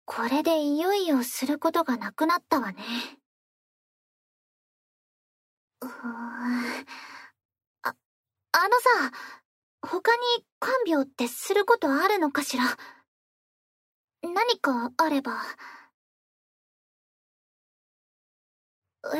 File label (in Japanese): 添い寝, ASMR; 今度は添い寝で、しっかり休んでね、お兄さん